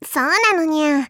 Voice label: explaining